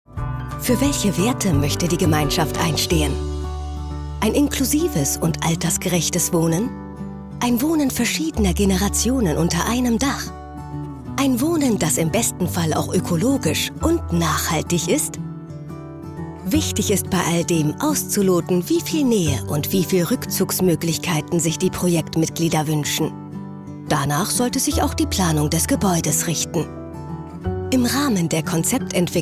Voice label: Erklaerfilm; Der Weg ins Wohnprojekt; LOFT VOICES

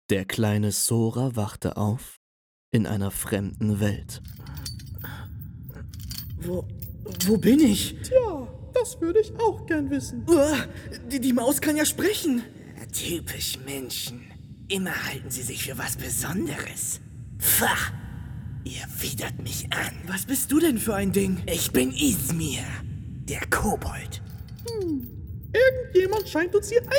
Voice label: Trickstimmen

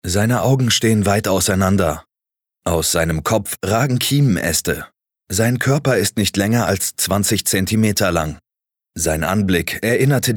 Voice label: Dokumentarischer Text

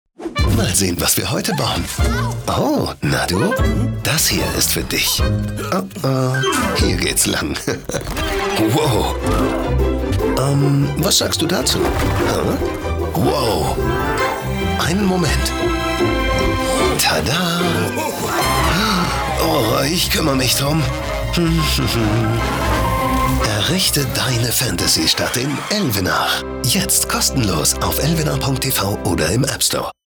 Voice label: TV Werbung; Elvenar Magic Hands

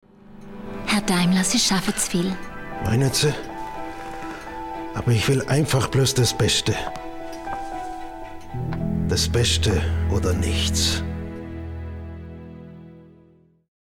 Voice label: dialekt

